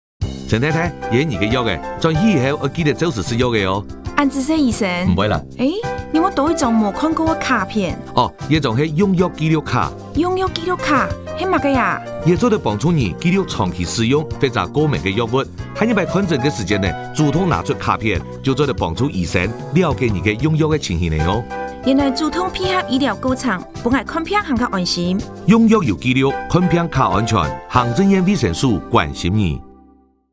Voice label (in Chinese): 用藥紀錄卡-客語版